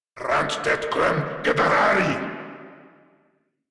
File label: Attack, Grineer Male